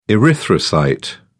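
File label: "erythrocyte", British English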